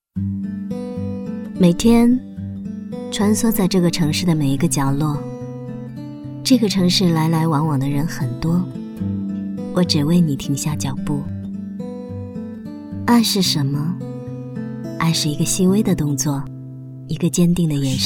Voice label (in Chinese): 微电影, 女; 嫁给你